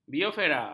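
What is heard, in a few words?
"biosfera", Malay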